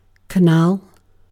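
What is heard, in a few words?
"canal", British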